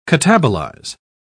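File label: "catabolize", British English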